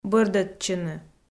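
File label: "бӧрдӧдчыны", Komi, female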